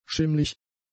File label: "schimmlig", German; adjectives